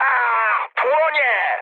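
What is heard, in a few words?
on fire, mood med, Polish speech; War Thunder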